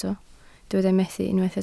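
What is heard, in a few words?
"methu" Welsh, spoken word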